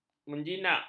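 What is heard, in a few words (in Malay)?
"menjinak", Malay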